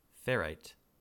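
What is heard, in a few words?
"ferrite", US English; pronunciations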